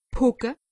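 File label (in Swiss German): "pocke", Alsatian; medecine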